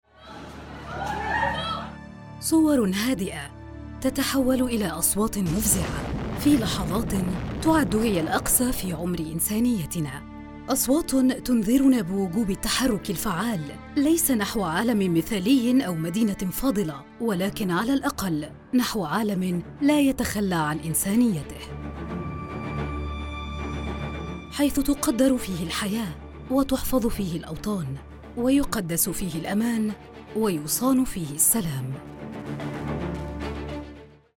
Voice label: documentary